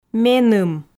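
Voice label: "меным", Komi, female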